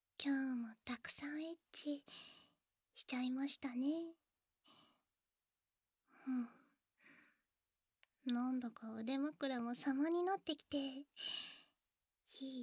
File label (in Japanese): エッチが当たり前になってきたあとのピロートーク